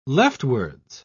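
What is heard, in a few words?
"leftwards", British English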